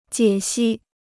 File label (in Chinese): "解析", Chinese